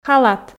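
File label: "халат", Komi, female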